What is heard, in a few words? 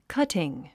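"cutting", English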